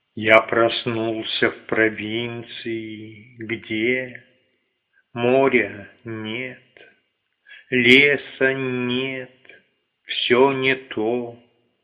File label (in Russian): звучащие стихи; "В один из этих мягких дней..."